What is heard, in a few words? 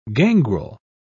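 "gangrel", English, British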